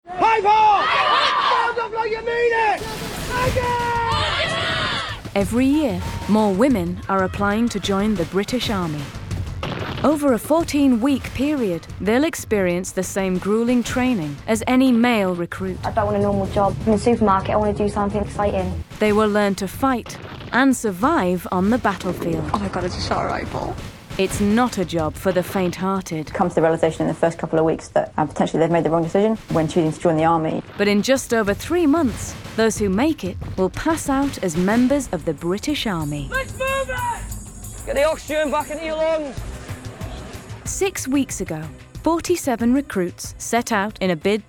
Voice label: Documentary